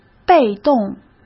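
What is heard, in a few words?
"被动", Mandarin Chinese; chinese-flashcards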